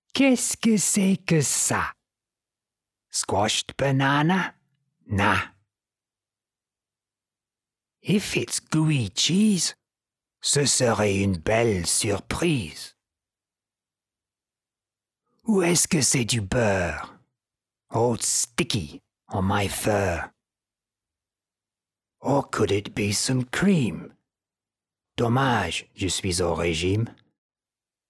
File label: French